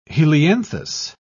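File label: "helianthus", British English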